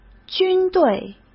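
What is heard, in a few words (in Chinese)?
"军队", Mandarin Chinese; hsk; chinese-flashcards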